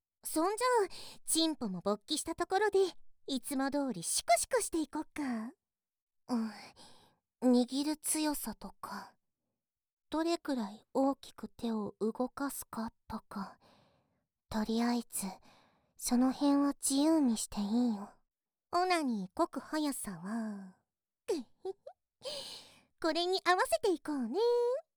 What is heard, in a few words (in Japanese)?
シコシコボイスと嫌がらせボイスで強制オナニー, ASMR